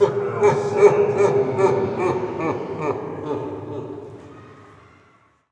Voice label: Vocals; Vengeance - Essential Clubsounds Vol.4

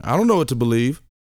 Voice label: talk, sci-fi, vocal; ILIO; Vocal Planet

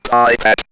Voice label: Robot Hi Hat, robot vox; X-Static Goldmine

